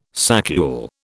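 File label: "saccule", British English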